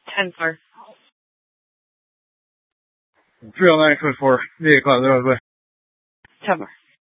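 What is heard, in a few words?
police dispatch, Scottsdale PD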